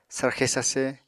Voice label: "sarxe sarse", Apatani

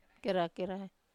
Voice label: "kera-kerai", Wamesa

